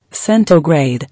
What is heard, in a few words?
"Centigrade", English